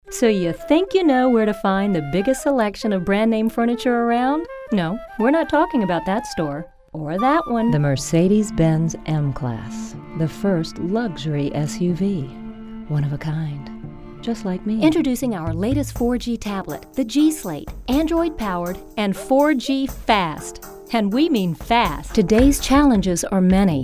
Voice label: F Commercial; voiceover womens

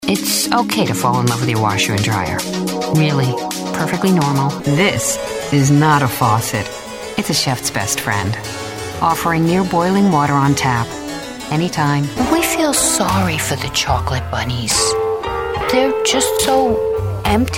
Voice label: F Commercial

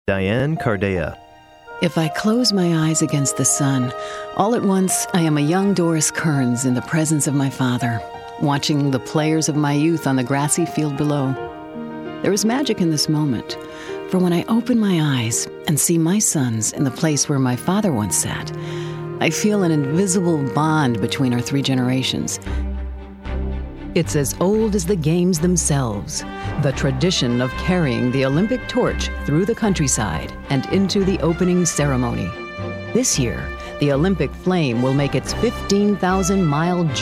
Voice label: narration women

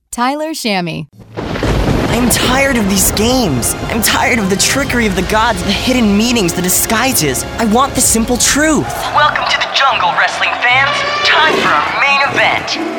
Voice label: M Character, voiceover, men

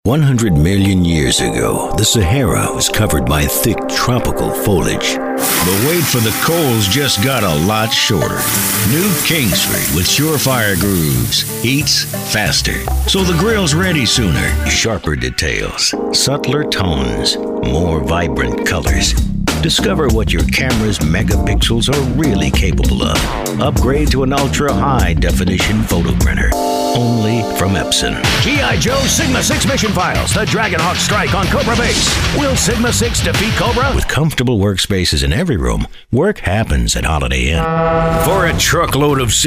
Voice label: M Commercial, voiceover mens

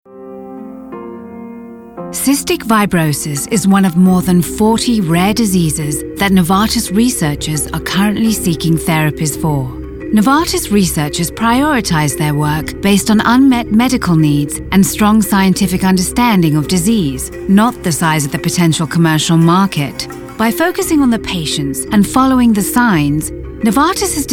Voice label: narration, women

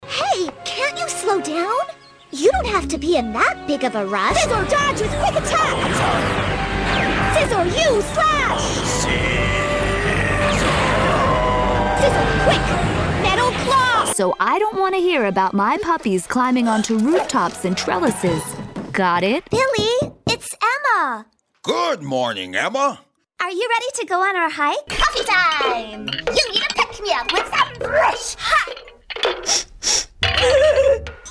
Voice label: voiceover character women

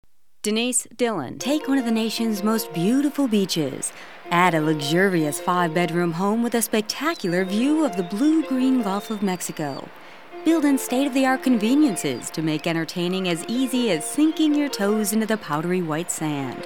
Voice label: voiceover narration women

